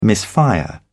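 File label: "misfire", British English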